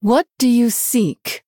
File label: Female Human Greetings; en